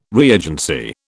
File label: "reagency", British